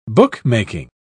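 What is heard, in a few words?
"bookmaking", British English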